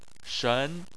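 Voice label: "shen" tone 2, Chinese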